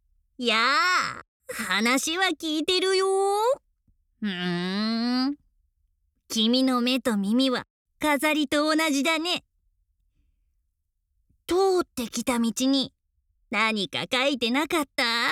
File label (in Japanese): モンスター